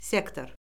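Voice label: "sektor", Azerbaijani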